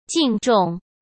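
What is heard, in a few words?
"敬重", Mandarin Chinese; hsk; chinese-flashcards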